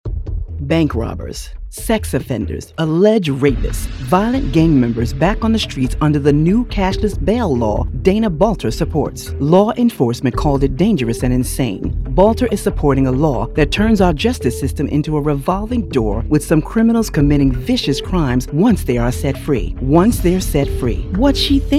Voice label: Republican Voiceover; Political GOP Voices English

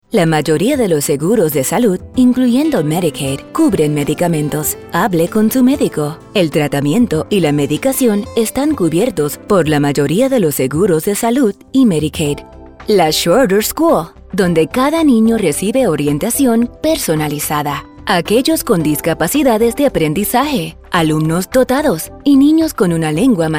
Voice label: Corporate SPANISH, Female Voice Over

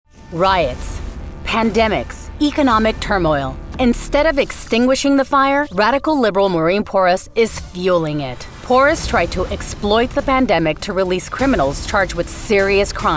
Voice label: GOP Voiceover ENGLISH; Political GOP Voices English

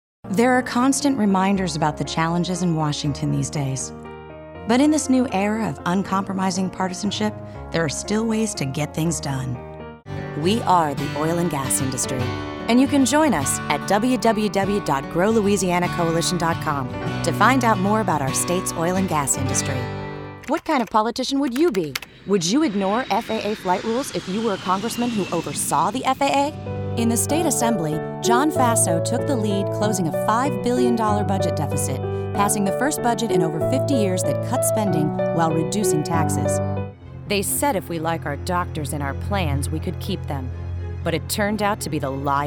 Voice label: Republican Voiceover; Political GOP Voices English

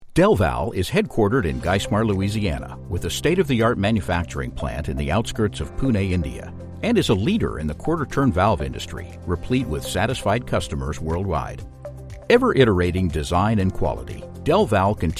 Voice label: Corporate Voice Over

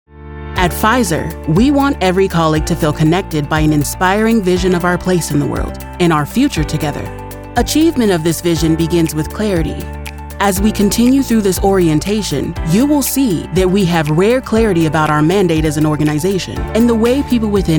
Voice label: Corporate, Female Voice Over

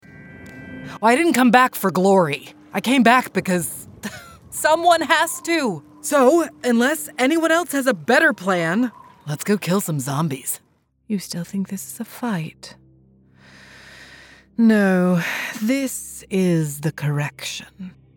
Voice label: Video Game, Female Voice Over

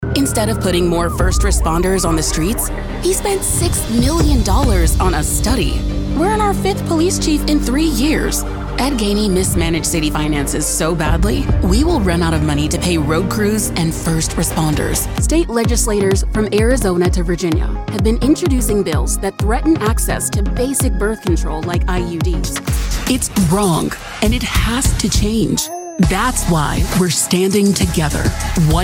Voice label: Democrat Voiceover, Political Voices English

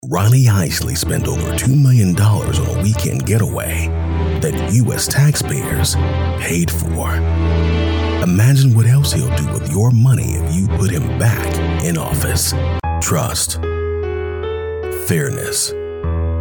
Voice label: Democrat Voiceover, Political Voices English MALE

